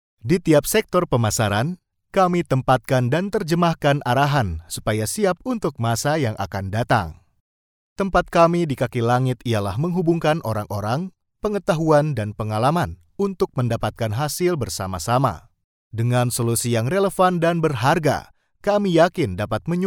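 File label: Indonesian, male, corporate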